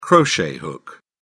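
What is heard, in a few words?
"crochet hook", British English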